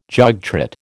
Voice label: "jogtrot", American

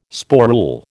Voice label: "sporule", American

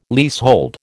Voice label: "leasehold", American

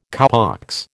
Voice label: "cowpox", American

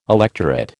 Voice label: "electorate", American

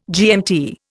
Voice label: "GMT", Indian

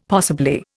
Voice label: "possibly", Indian; Dictionary

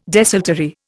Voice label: "desultory", Indian

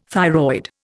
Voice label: "thyroid", Indian

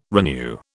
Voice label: "renew", British

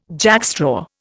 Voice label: "jackstraw", Indian

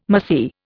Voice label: "mahseer", Indian

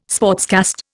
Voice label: "sportscast", Indian

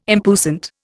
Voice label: "impuissant", Indian

